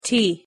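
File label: "t" pronunciation English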